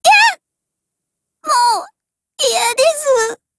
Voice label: BREAK JP